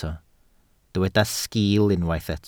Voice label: "sgiil", Welsh

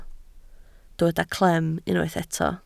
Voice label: "clem", Welsh

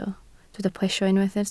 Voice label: "plesio", Welsh

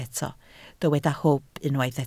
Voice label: "hwb", Welsh

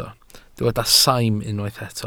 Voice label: "saim", Welsh

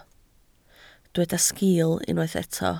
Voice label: "sgiil", Welsh

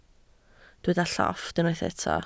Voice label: "llofft", Welsh

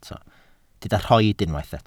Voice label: "rhoid", welsh

